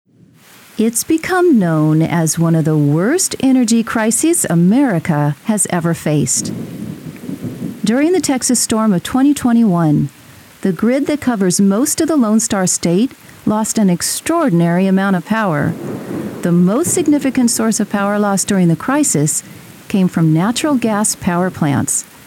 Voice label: Documentary